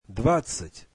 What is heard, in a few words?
russian twenty